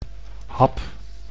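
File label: "hap", Dutch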